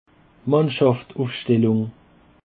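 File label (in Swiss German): "mannschaftufstellung", Alsatian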